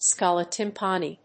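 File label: "scala tympani", English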